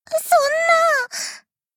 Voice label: shock